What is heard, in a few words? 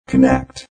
Robot Voice "Connect"; Robot Type 2 voice; Robot Sound Effects; Lynne Music